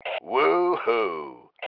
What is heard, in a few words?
"WOOHOO", male